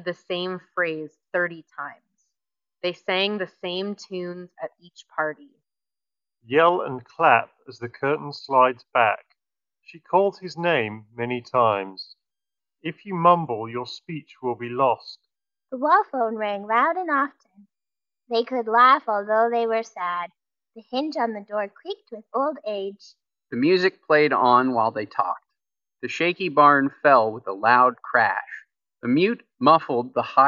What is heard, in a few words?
Ideal microphone sample; JBL Endurance Peak3